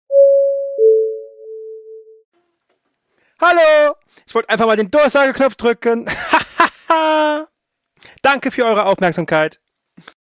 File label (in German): durchsage